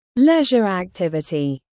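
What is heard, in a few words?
"leisure activity", British; Life, leisure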